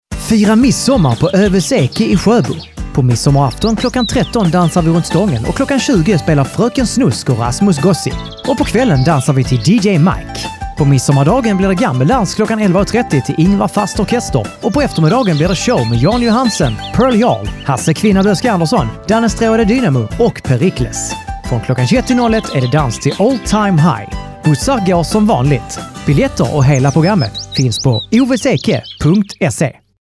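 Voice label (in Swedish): Radioreklam, radio advert; arets radioreklam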